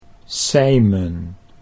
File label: "Sijmen" Dutch